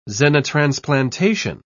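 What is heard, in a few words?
"xenotransplantation", British English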